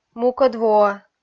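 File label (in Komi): "мукӧд воӧ", Komi, female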